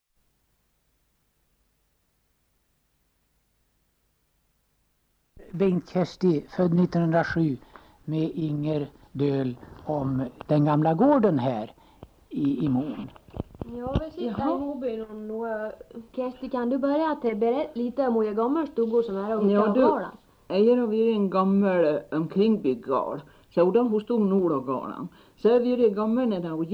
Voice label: Svenska sagor, dialekter; Folkminnen

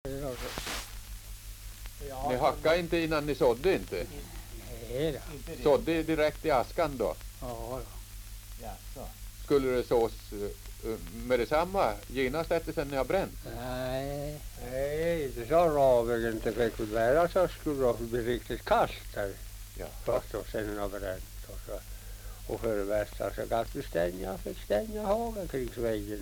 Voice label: Svenska sagor, dialekter; Folkminnen

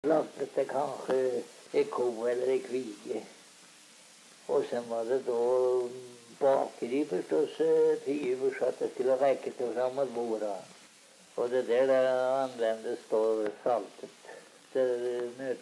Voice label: dialekter; Folkminnen; Svenska sagor

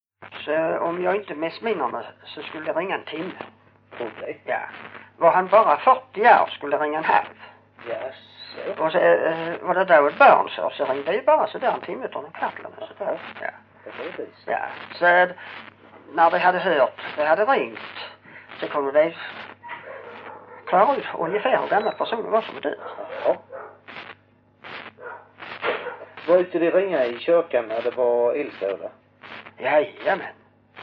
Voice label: Hörja, dialekter; Folkminnen; Svenska sagor

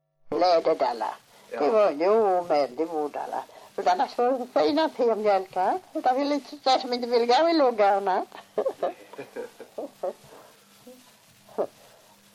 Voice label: dialekter; Folkminnen; Svenska sagor

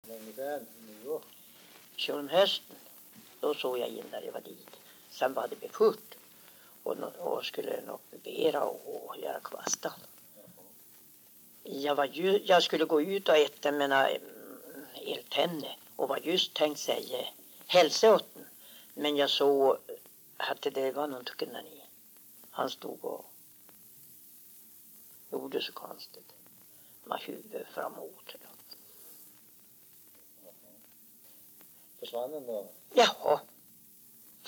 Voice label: dialekter; Folkminnen; Svenska sagor

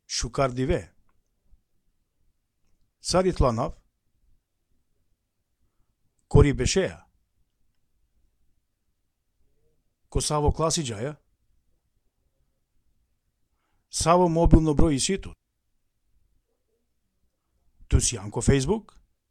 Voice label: arliromska; sprakradet

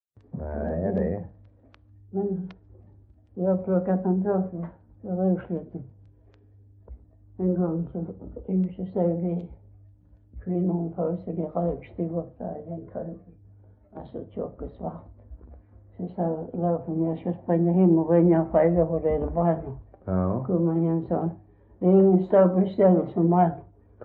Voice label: Svedala SK, dialekter; Folkminnen; Svenska sagor

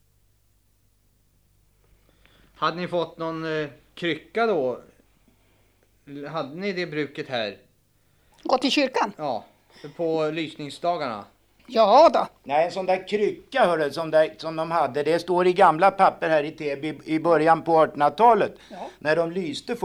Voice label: Täby, dialekter; Folkminnen; Svenska sagor

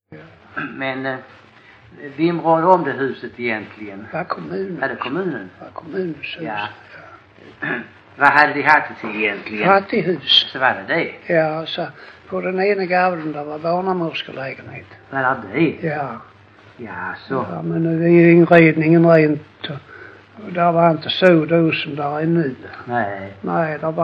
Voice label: Lackalänga SK, dialekter; Folkminnen; Svenska sagor

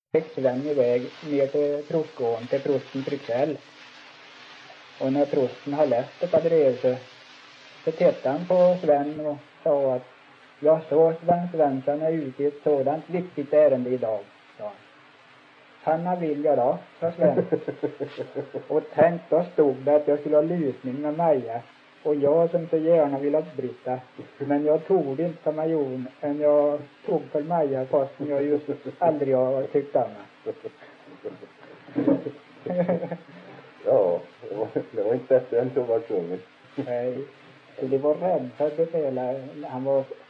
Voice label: Sunne, dialekter; Folkminnen

